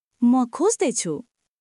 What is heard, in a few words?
Nepali, basic phrases